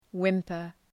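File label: "whimper", English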